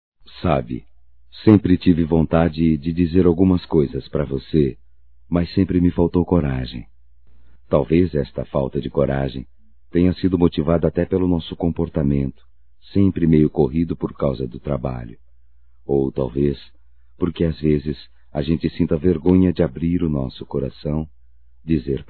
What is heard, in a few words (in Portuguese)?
EU TE AMO, masc; Telemensagens 2017; Amor